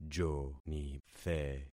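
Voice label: "jonithe", concatenated syllables; Affixing experiment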